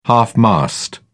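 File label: "half-mast", British English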